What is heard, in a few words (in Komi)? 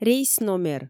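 рейс номер, Komi, female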